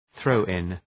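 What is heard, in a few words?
"throw in", English; {‘ɵrəʋ,ın}; (Ρήμα)● δίνω επιπλέο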